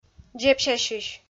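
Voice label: "дзебсясьысь", Komi, female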